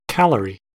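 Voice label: "calorie" English, American accent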